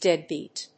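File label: "deadbeat", English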